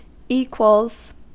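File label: "equals", US English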